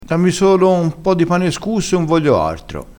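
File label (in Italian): "scusso", vocabolario dialettale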